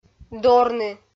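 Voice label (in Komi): "дорны", Komi, female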